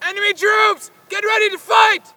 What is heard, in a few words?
spot; bf3